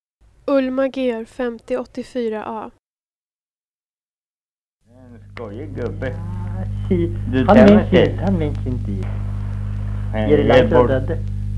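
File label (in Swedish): Norsjö Västerbotten, dialekter, svenska sagor; Folkminnen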